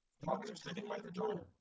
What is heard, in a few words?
calm anger generated; GAN for speech spectrogram